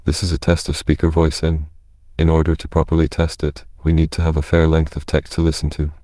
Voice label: speaker en

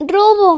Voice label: fear

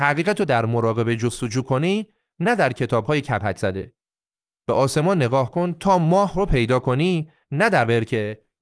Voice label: persian

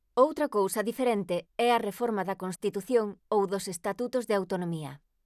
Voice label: galician; Nos ASR galician; Celtia

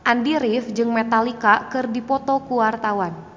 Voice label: sample sundanese

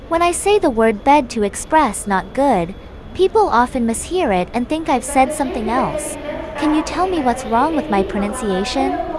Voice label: Airport Announcements

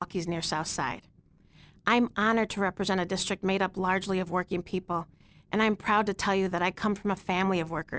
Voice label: Radio

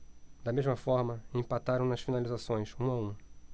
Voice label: speech, ptbr

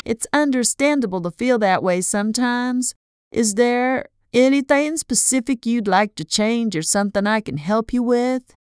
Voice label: emotion; S2S Evaluation; cascade

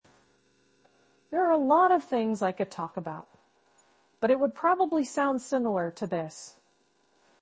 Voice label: en speaker

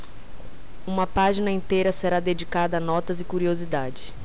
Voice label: speech, ptbr

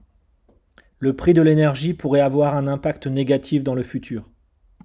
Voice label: energy fr

